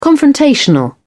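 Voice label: "confrontational", British English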